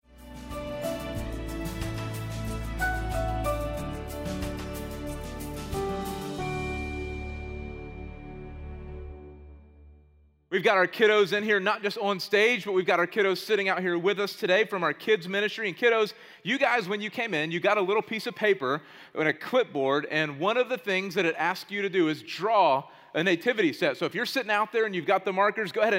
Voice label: Sermon English